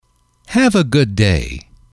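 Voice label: "have a good day", English, male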